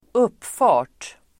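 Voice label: "uppfart", Swedish; Uttal: [²'up:fa:r t]; approach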